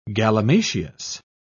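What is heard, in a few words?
"galimatias", British English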